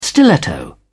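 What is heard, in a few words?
"stiletto", British English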